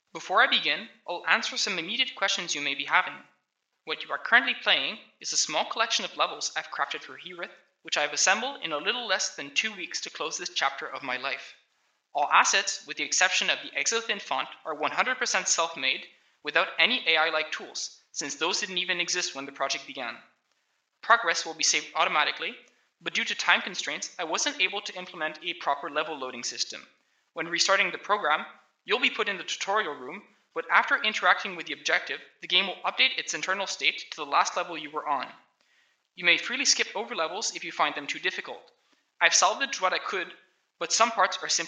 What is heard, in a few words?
voice, narration